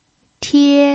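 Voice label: "tie", Mandarin Chinese pinyin, first tone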